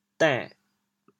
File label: "dên6", Teochew, chaoyang; 见卵哩dên6，见神哩下; 俗语; 潮阳